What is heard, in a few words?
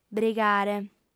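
"brigare", female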